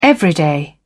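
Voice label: "everyday", British English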